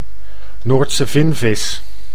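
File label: "Noordse vinvis", Dutch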